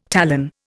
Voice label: "talon", Indian

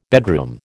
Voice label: "bedroom", American

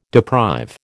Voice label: "deprive", American

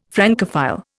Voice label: "Francophile", Indian

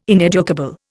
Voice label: "ineducable", Indian

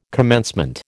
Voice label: "commencement", American

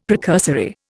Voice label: "precursory", Indian; Dictionary

